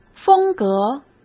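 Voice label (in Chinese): "风格", Mandarin Chinese; chinese flashcards; hsk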